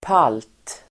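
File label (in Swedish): "palt", Swedish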